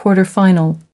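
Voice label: "quarterfinal", English word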